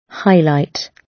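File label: "highlight", British English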